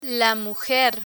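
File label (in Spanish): "la mujer", Spanish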